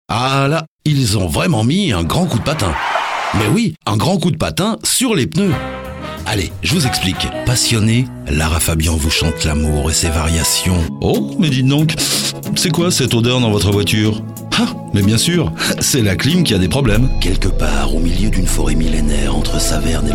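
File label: French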